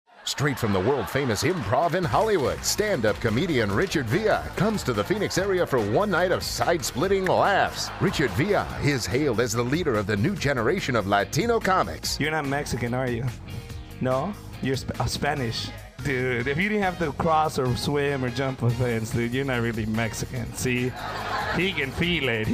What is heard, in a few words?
comedy radio ad, Radio Commercial